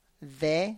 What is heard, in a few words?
"de", pl Marker, tlacochahuaya